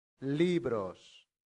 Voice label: "libros", latin; santillana

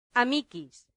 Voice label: "amicis", latin; santillana

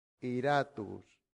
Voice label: "iratus", Latin; santillana

